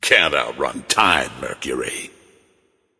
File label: taunt directed; chronos; mercury